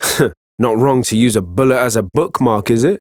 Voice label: Ambient Barks, en; Warframe; Mall Romance; Quincy

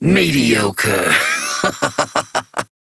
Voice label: Battle Taunt, Dialog; Kuva Lich; Persona C

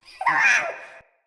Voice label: Sprout Vocal Surprised

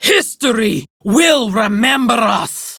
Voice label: Attack Prime Grineer Female; Tau; Battle Barks

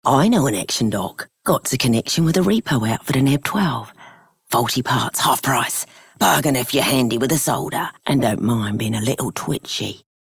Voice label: Female Scum, en; Dialog; Warframe; Solaris Venus

